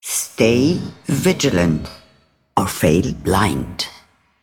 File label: Female Broker Combat Win